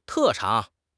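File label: "特长", Mandarin Chinese; HSK6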